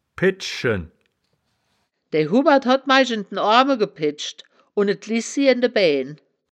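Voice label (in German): "pedschen", Wittlicher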